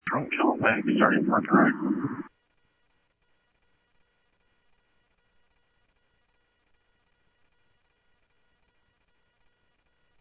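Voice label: Light Rail Security Light Rail, scanner audio; ThompsonPeakHigh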